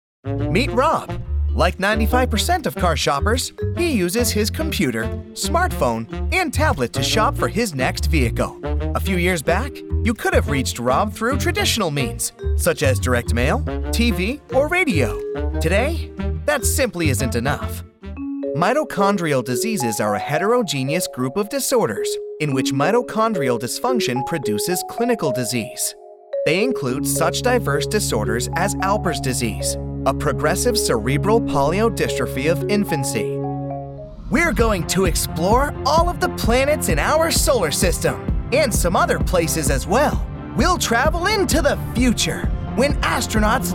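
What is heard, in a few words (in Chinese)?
美式英语男声 Explainer; Explainer Reel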